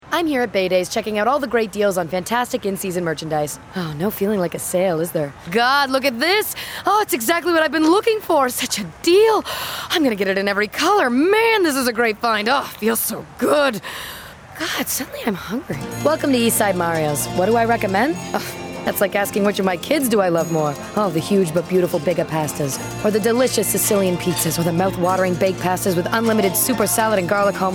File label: commercial